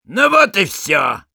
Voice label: Sniper positive vocalization ru; «Ну вот и всё!»